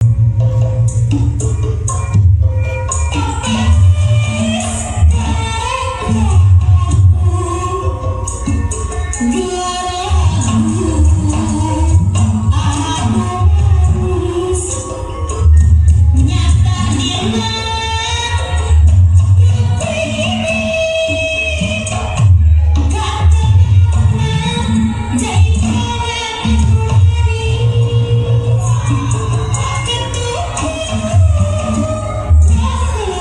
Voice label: Indonesian Press Conference; ATF 2019